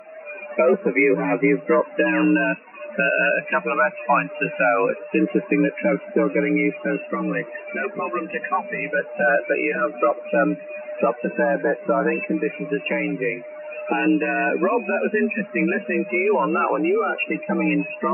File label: 40m SSB G day Diversity Stereo min Span NR